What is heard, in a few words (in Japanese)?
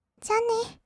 じゃあね, kawaii voice; ASMR; 挨拶; 1200 kawaii voice!!